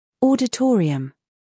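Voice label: "scandal", American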